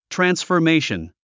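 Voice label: "transformation", English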